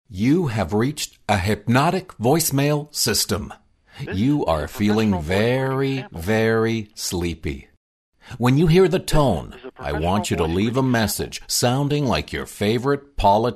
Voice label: Hypnotic Voicemail